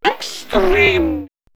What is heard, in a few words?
Man Machine X-treme, robot vox; X-Static Goldmine